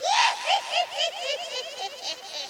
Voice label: witch